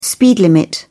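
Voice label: "speed limit", English, British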